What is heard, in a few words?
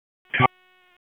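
Fire Department Dispatch, P25; wake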